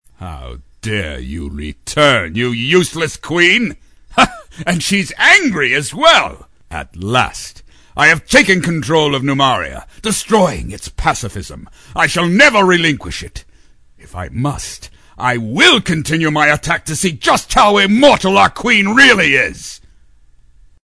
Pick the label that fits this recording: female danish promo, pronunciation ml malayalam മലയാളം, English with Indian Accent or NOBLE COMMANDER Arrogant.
NOBLE COMMANDER Arrogant